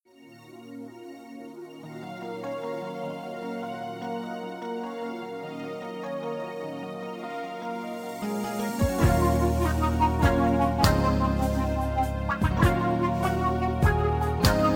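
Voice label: male; ano n neutra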